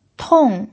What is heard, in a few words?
"tong4", pinyin